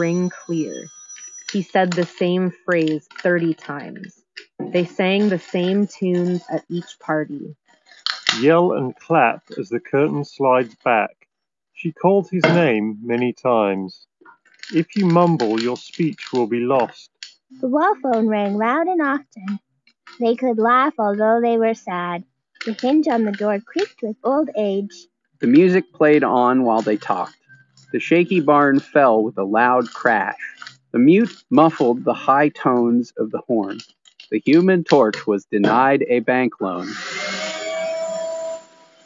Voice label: Audio-technica ATH-M20xBT Office microphone sample